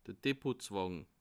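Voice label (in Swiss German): "depotzwang", Bas Rhin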